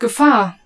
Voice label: German, Danger